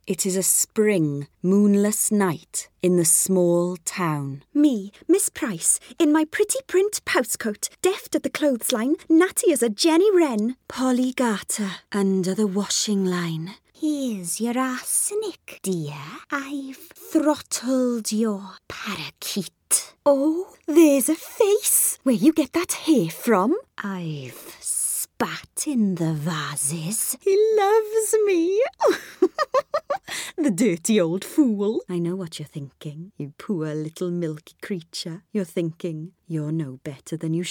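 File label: English UK Characters; GoLocalise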